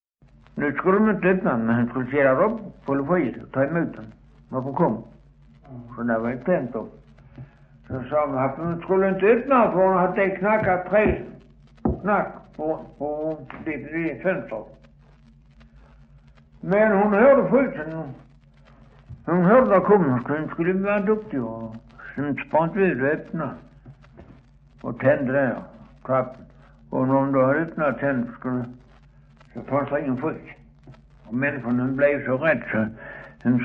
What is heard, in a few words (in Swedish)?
Östra Ingelstad SK, dialekter; Folkminnen